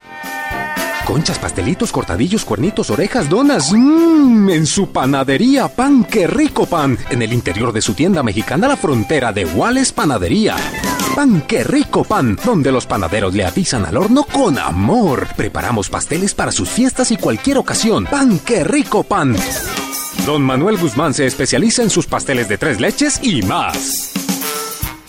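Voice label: Spanish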